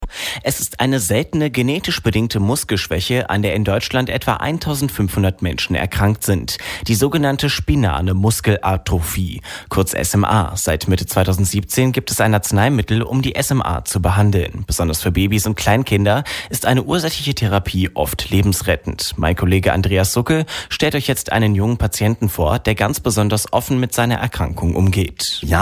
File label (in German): Radio PR Muskelschwaeche